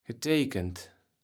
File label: "geteikend", Kaevels Platt